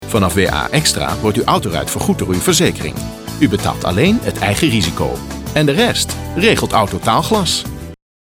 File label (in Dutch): Commercial